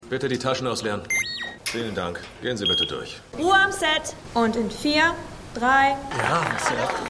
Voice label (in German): Wachmann Aufnahmeleiterin Mann; Stimmproben; City On A Hill